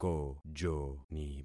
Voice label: "kojoni", concat syllables; Affixing experiment